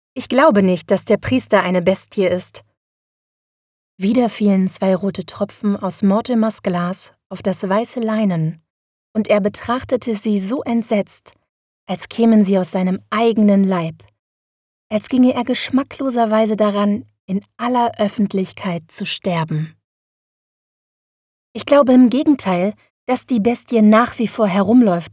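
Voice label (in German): Hoerbuch Krimi, stimmen weiblich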